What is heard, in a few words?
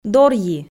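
"дорйи", Komi, female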